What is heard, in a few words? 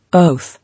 "Oath", English